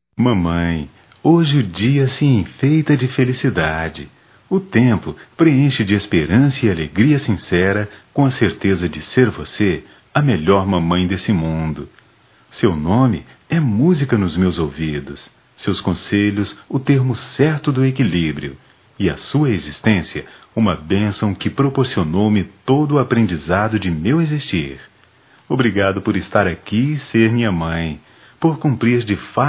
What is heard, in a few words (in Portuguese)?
Mãe religiosa-f; Dia das Maes; Telemensagens 2017